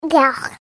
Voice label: "ughh", girl 2 years; Talking; Voice; McKinney Sound